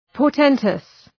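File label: "portentous", English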